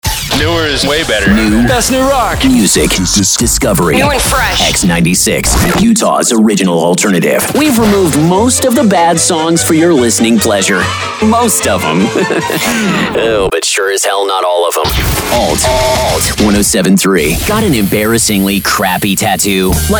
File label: Alt Imaging